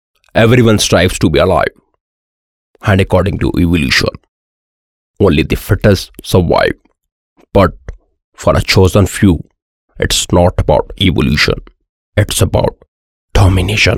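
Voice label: english voice over, male voice; Animal planet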